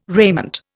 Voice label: "raiment", Indian